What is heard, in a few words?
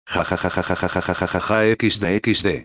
loquendo